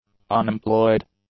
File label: "unemployed", English; adjectives